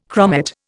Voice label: "chromate", Indian

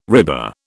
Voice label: "RIBA", British

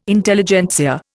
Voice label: "Intelligentsia", Indian

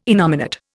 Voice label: "innominate", Indian

